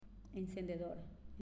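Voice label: "Encendedor", Spanish, female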